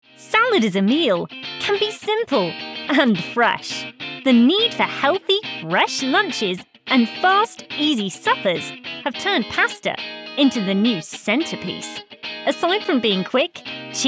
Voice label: Commercials

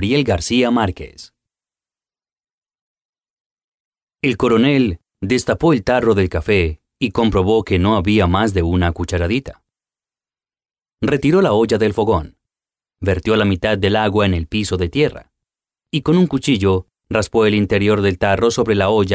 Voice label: Latin American Spanish Audiobook

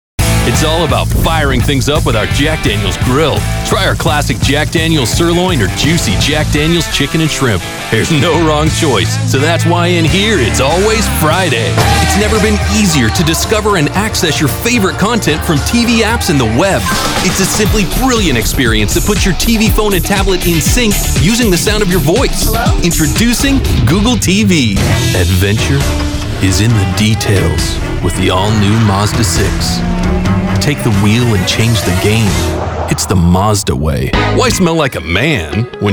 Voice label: Commercial

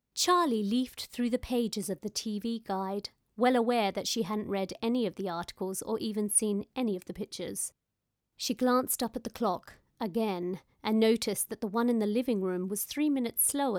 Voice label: Audio-book sample Neutral English accent; Nightingale

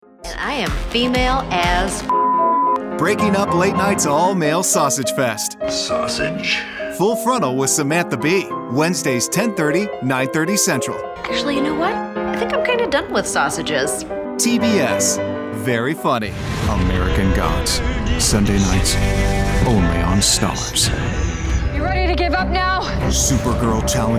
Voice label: PROMO

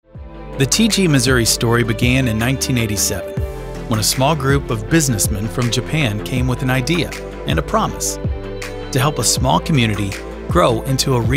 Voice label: Corporate Narration

